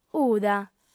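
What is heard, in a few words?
"uda", Sardinian, female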